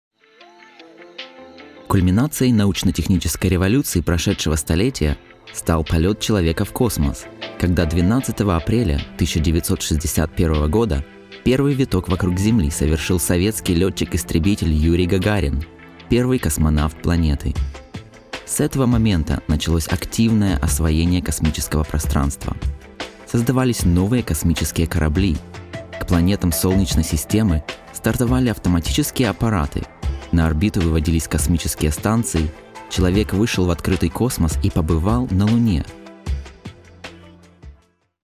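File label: General, Russian; GoLocalise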